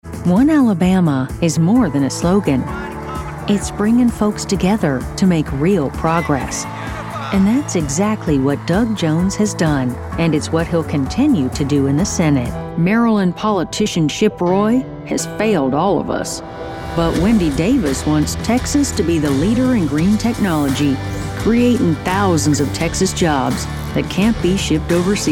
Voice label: Political, voiceover